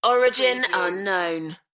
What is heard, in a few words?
UK female Origin unknown; beanstalk audio